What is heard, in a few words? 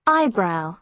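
"eyebrow", British English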